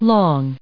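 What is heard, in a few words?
"long", US English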